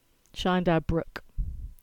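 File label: English; Chyandour Brook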